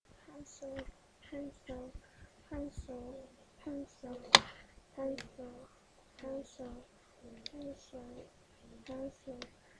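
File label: "pencil", spoken word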